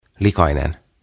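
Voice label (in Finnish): "Likainen", suomeksi; Dirty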